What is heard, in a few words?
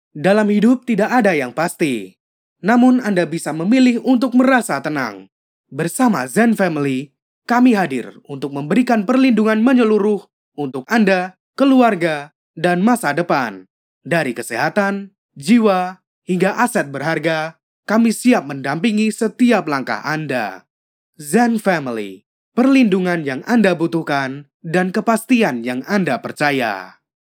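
FORMAL Indonesian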